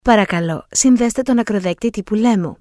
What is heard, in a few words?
greek medical